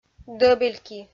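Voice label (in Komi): "дӧбельки", Komi, female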